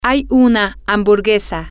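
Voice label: there is a hamburger, spanish, female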